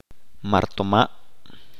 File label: Religious society, chalchiteko